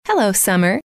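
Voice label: hello, female; trainer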